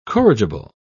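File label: "corrigible", British English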